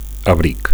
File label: "abric", Catalan; coat